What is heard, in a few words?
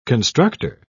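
"constructer", English, British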